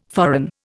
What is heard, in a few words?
"foreign", Indian